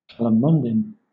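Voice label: "calamondin" English, British accent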